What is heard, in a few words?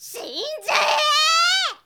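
"sinzyae", girl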